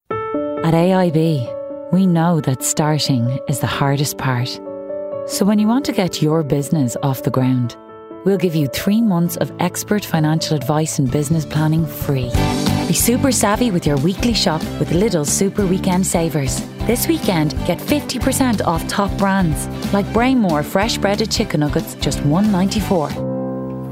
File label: Commercial Voicereel